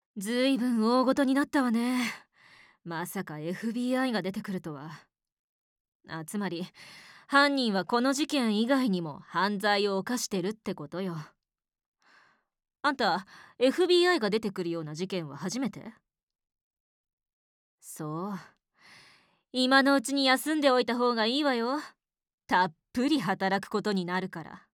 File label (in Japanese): 女性刑事